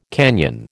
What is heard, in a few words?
"canyon", American